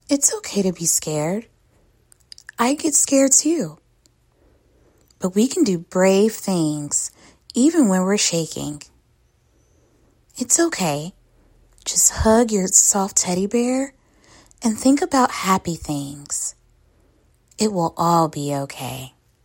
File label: Gentle voice